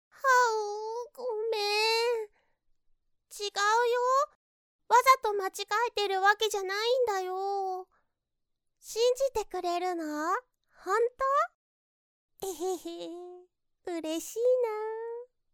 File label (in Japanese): 天然系女子